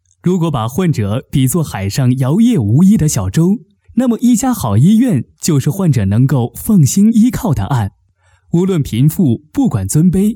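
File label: Narrator Male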